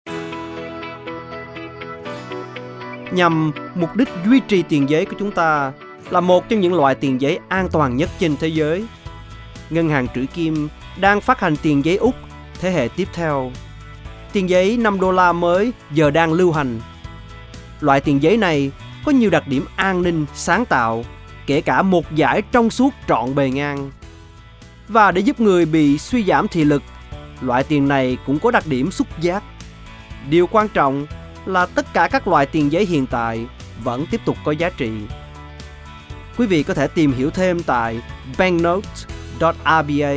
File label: radio ad translation vietnamese; Radio advertisement transcript